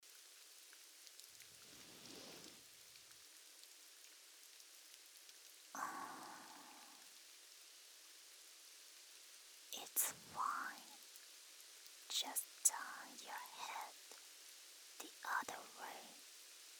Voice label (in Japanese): 右耳かき・心音・BGMなし, 英語版; 命の鼓動